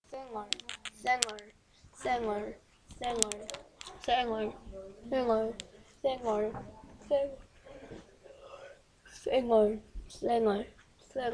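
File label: "singer", spoken word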